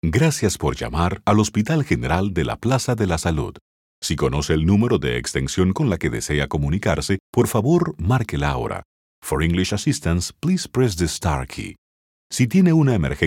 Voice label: Spanish Male